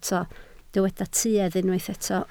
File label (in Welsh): "tuedd", Welsh